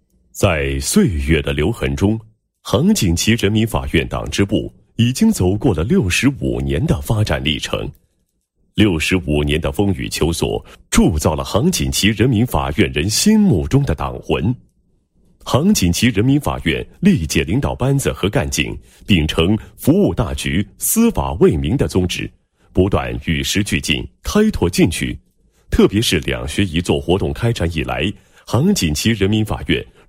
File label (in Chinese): 男, 党建; 某旗人民法院创新“三会一课”党建工作